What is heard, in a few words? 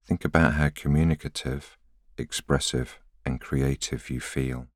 voiceover